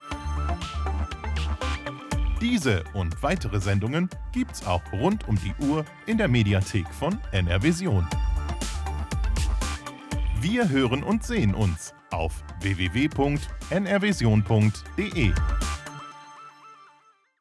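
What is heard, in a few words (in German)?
audiotrailer radio männlich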